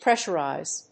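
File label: "pressurize", English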